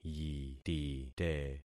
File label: "yitite", concat syllables; Affixing experiment